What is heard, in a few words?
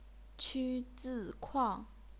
qu1 zi4 kuang4, chinese pinyin; 匚